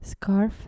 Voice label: "scarf", dutch